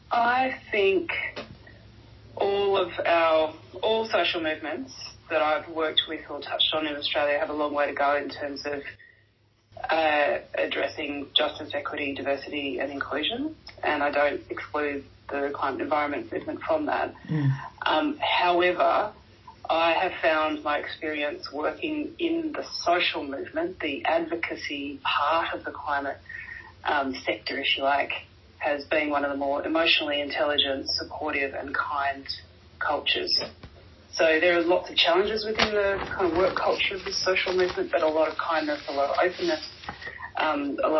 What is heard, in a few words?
Interview; Women In Environment Movement